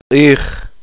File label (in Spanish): uspanteko día